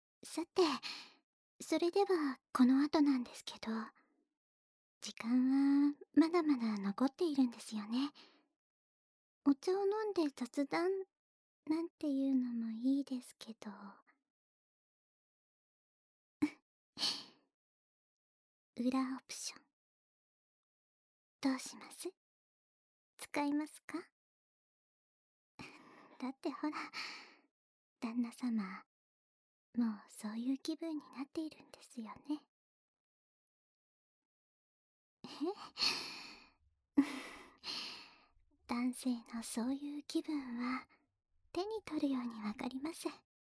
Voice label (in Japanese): くちゅ音無し 応援手コキ, ハイレゾ KU100 バイノーラル; 耳かきリフレ『春乃撫子』へようこそ～旦那様のお耳と心を癒します～; 溜まっているもの、全部びゅっびゅさせましょうねー